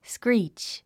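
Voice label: "screech", English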